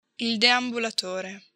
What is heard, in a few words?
"deambulatore", Italian